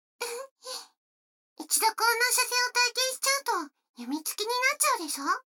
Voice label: 女の子 台詞, ASMR

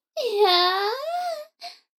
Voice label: 女の子台詞, ASMR

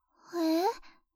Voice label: ふぇ?, 天然; ハイグレボイス集

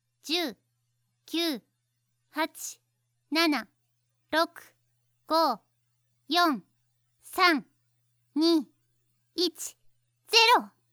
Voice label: 10から0へカウント

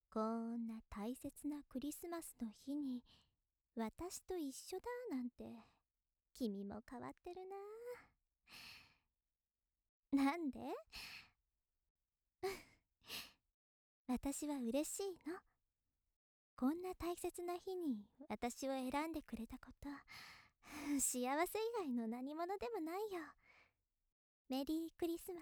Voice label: 優しいお姉さん クリスマスだよ

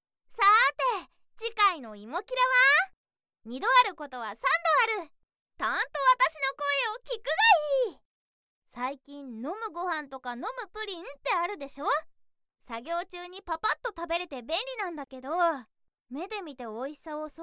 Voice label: 次回予告（BGMなし）; ドージンボイスワーク

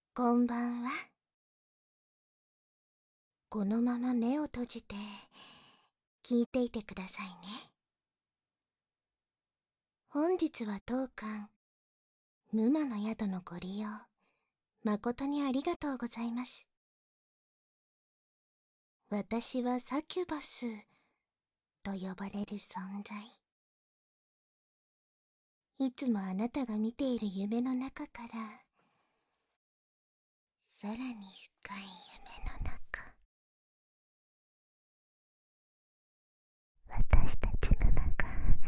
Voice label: カウントダウン（バイノーラルマイク 効果音なし）, ASMR; 宿への入り口～夢魔の夢へのカウントダウン～

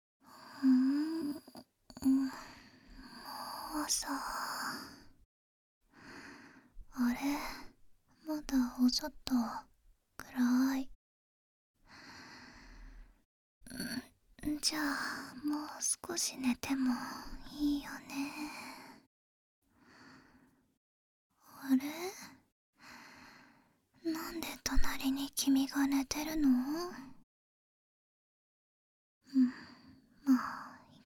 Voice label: あまあまボイス集 バイノーラルマイク, ASMR

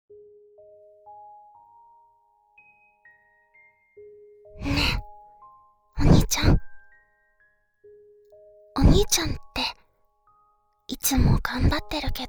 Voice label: 励まし音声; 「早漏でも偉い」前半

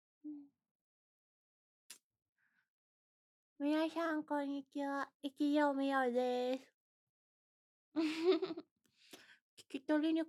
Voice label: フェラしながらのフリートーク

